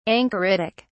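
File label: "anchoritic", English, British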